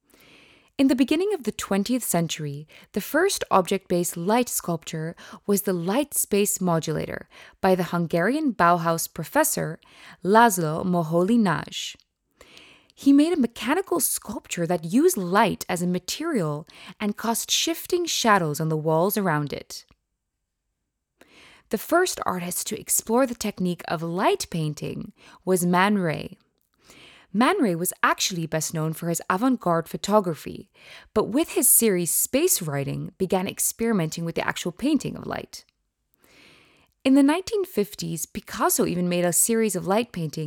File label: Female Voiceover; Artfix Episode 10